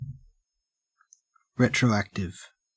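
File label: "retroactive", Australian English; pronunciations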